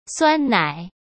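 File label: "酸奶", Mandarin Chinese; hsk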